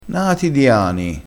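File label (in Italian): "nati di ani", dialettale crespinese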